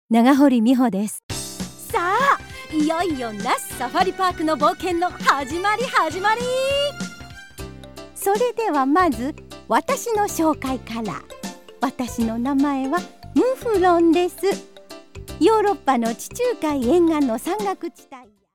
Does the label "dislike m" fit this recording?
No